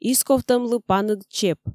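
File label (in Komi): "исковтӧмлы паныд чеп", Komi, female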